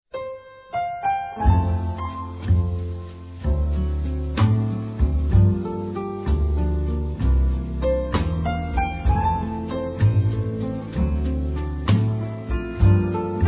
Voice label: AVÔ, fem; Telemensagens 2017

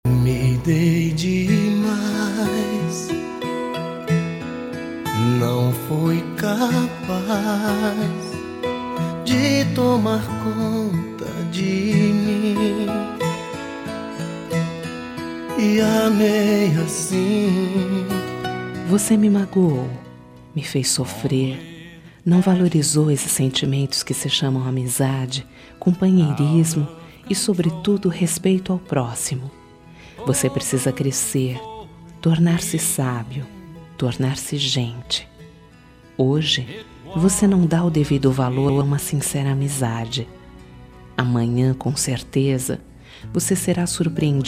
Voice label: Telemensagem Dando uma dura, Fem; duBroncas

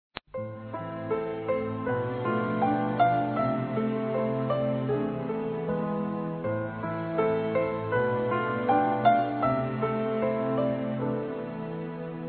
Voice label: Para todas as Mãe (adulto) masculino; Dia das Mães; Telemensagens 2017

